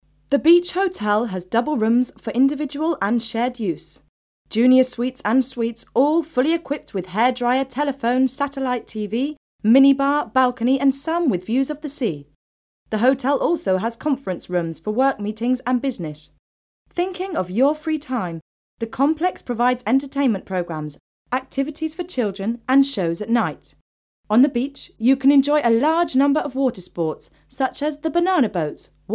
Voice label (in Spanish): locutora inglesa; Speaker Inglese